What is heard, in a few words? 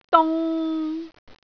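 "dung3", Cantonese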